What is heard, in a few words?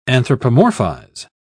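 "anthropomorphize", English, British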